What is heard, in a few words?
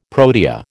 "protea", American